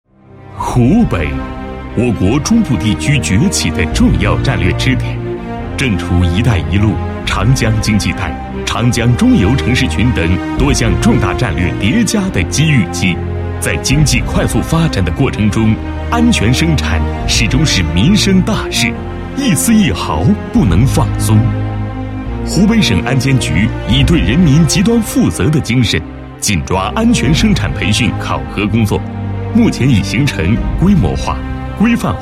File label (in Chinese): 男 政府工作; 湖北省安监局构建新时期安全生产培训考核体系